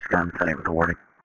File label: Police Sheriff Dispatch